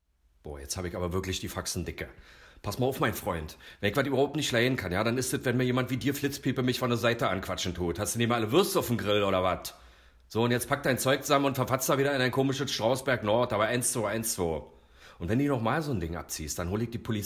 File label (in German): Dialekt